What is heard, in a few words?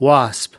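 "wasp", US English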